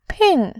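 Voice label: "ping", Mandarin, tone 4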